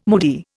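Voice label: "moody", Indian English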